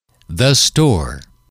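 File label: "the store", English, male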